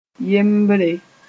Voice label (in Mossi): "yembre", moore